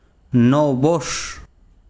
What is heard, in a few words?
besiro mosquito, chiquitano